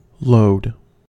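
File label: "load", American English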